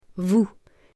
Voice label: "VOUS", FEMALE, French; personal pronouns pronoms personnels